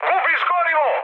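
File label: Serbian tank damaged mood high; speech; War Thunder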